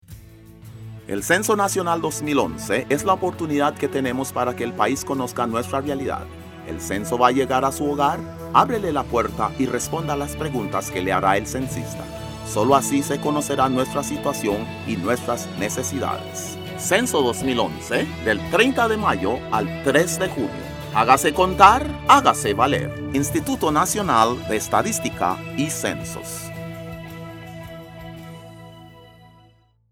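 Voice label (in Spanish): Anuncio Radio, Idioma Español, Afro Caribeño; Censo Nacional 2011; INEC Costa Rica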